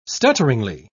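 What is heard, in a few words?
"stutteringly", English, uk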